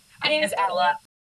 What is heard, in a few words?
Voice Acting, es